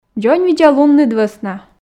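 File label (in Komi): "дзоньвидзалунныд вӧсна", Komi, female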